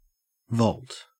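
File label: "vault", Australian English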